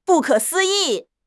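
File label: "不可思议", Chinese; HSK6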